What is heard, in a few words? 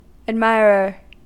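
"admirer", US English; pronunciations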